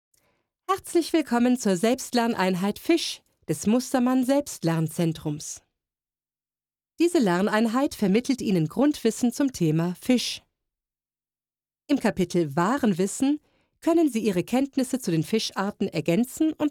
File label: E Learning